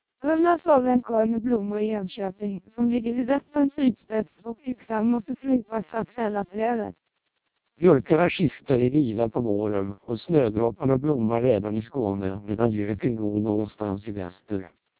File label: Swedish, speech, Vocoder